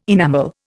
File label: "enamel", Indian